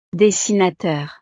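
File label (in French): "dessinateur", French; profesiones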